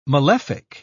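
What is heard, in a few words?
"malefic", British English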